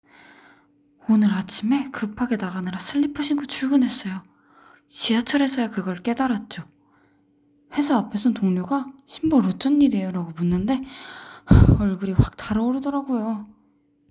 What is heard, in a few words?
Young Female Surprised, korean voice emotion